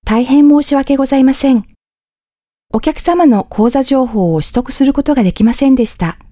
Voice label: Japanese IVR, Female

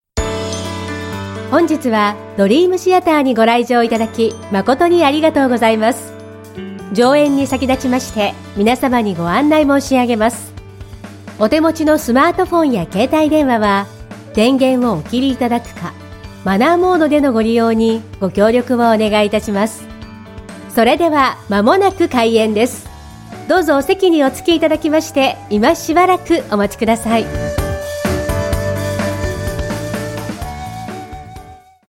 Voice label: Japanese Voice Sample, Female

